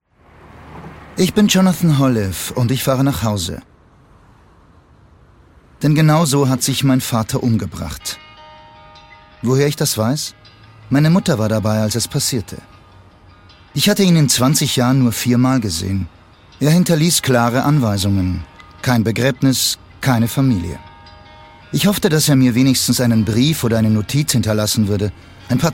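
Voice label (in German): commentary, Sanft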